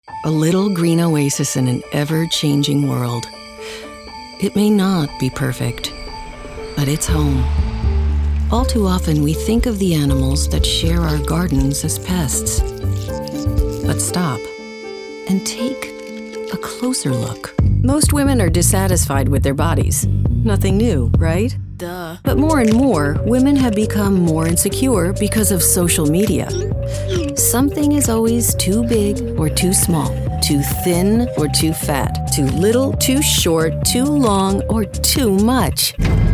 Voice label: NARRATION